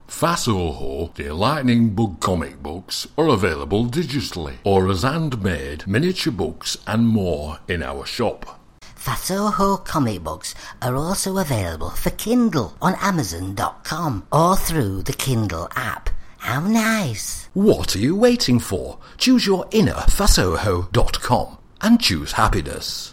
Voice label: ad